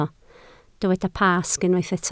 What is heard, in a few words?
"Pasg", Welsh